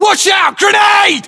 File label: inform incoming grenade, battlechatter, uk; english; localized english